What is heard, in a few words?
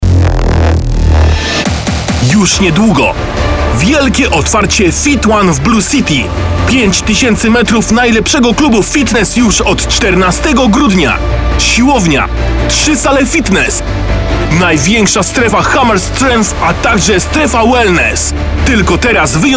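Polish, male, commercial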